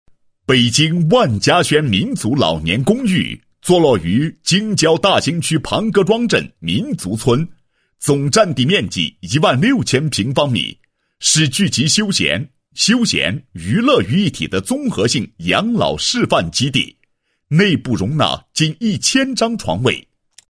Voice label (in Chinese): 男 房地产广告 老年公寓简介